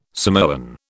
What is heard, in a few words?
"Samoan", British